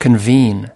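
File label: "convene", US English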